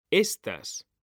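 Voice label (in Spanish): "estas", Spanish; mekanta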